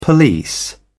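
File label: "police", British English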